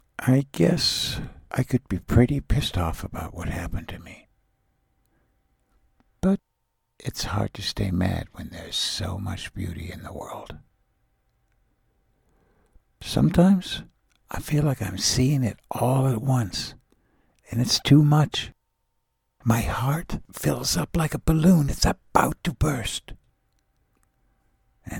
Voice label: Monologue